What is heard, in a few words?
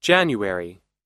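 "January" English, us; January – Janeiro; Datas em Inglês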